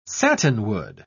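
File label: "satinwood", British English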